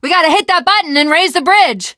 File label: hitting bridge button, teengirl; video game; Left 4 Dead; Source Engine; survivor